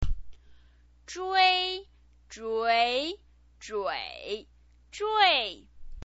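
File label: "zhui", Mandarin Chinese pinyin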